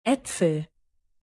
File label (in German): äpfel, alphabet de native